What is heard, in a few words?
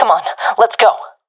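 request move, professional female; vo; agents; Source Model Packs; Counter Strike Model Pack; CS2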